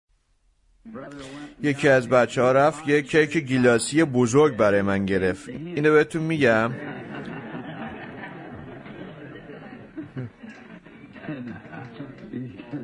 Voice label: Farsi Voice Over; Philadelphian Church Age